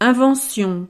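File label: "invention", French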